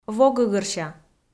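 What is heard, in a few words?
"вогӧгӧрся", Komi, female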